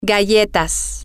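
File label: cookies, spanish, female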